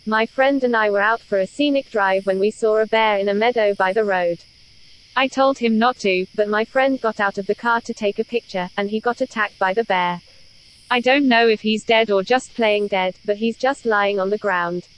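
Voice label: accents background en-GB Standard A F bear, British English, female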